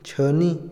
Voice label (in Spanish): rápido, Otomí, hombre; Tiempo; Vocabulary; INALI